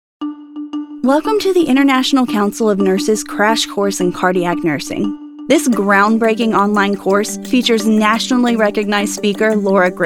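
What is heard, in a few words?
Medical Narration, Voiceover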